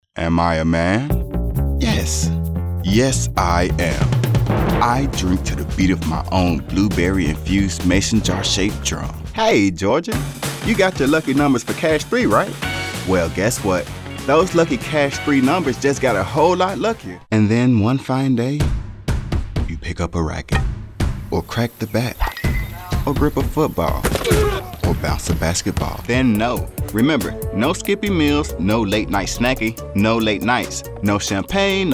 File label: Commercial